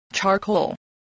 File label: "charcoal", English, voice